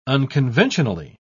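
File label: "unconventionally", British English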